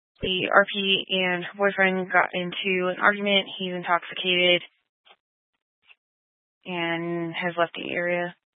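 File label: Police Dispatch, Scanner audio; Police 1 Dispatch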